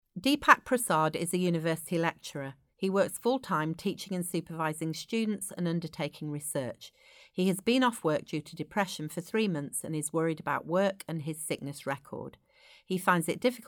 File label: narration